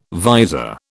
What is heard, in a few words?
"visor", British